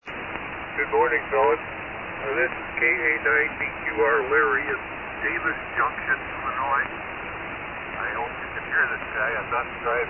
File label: Heathkit HW-100 & D-104 Mic; Davis Junction, IL.